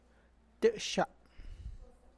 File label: my chest, Tlacochahuaya Zapotec; (Spanish) mi pecho; të’xa